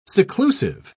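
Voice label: "seclusive", British English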